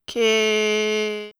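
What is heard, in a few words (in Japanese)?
"きぇ", Japanese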